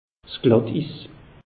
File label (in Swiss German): "glattis", alsace; meteo